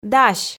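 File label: "дась", Komi, female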